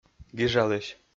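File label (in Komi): "гижалысь", Komi-Zyrian, male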